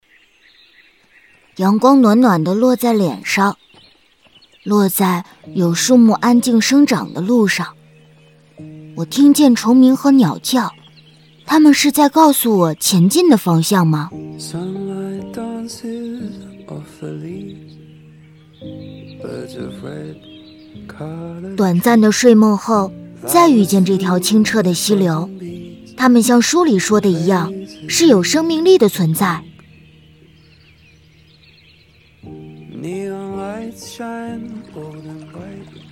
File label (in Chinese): 女 其它 广告 文艺广告 模仿小男孩